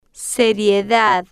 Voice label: "se-rie-dad", Spanish

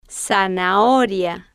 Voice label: "zanahoria", Spanish

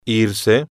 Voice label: "irse", Spanish

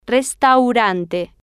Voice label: "restaurante", Spanish

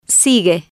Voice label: "sigue", Spanish

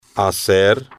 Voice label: "hacer", spanish

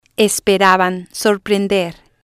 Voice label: "esperaban Sorprender", spanish

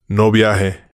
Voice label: "no Viaje", spanish

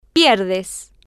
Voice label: "pier-des", Spanish

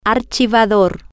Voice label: "ar-chi-va-dor", Spanish

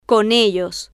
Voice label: "con Ellos", Spanish

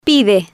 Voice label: "pide", Spanish

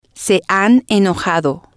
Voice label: "se Han Enojado", spanish

